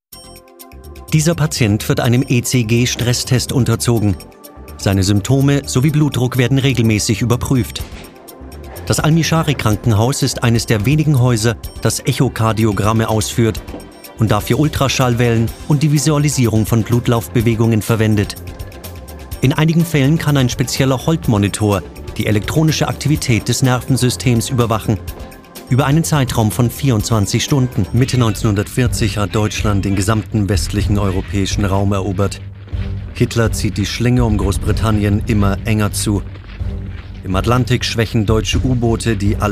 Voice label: male German German and English with German accent documentary compilation